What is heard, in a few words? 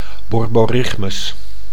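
"borborygmus", Dutch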